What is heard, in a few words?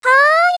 yes, voice commands; svencoop; Miku